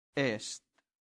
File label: "est", Latin; santillana